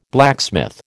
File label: "blacksmith", American